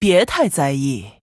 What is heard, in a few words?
Female Human TRIGGER Dragons Breath; zh